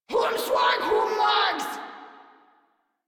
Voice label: Reload Grineer Female; Warframe